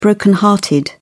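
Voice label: "broken hearted", British English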